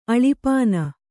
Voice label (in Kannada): "ಅಳಿಪಾನ", Kannada